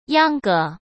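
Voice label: "秧歌", Mandarin Chinese; hsk; chinese-flashcards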